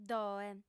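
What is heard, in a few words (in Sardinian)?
"ddoe", female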